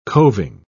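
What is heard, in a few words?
"coving", British English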